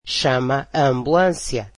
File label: portuguese Call the ambulance